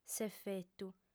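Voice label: "s'efetu", female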